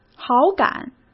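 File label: "好感", Mandarin Chinese; hsk; chinese-flashcards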